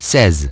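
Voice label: "sixteen", English; iOS 4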